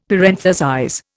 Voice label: "parenthesize", Indian; Dictionary

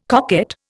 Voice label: "coquette", Indian

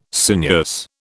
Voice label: "sinuous", British

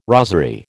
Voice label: "rosery", American

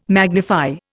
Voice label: "magnify", Indian

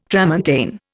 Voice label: "tramontane", Indian

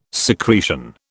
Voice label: "secretion", British

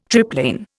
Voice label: "triplane", Indian

